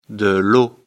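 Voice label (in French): "eau", French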